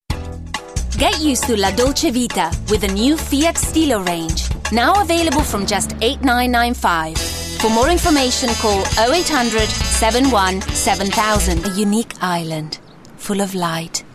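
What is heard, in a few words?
Italian, English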